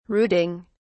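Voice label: "rooting", English, British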